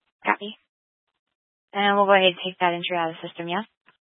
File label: Police Department Dispatch; Grand Junction